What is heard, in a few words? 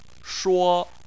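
"shuo", Chinese, first tone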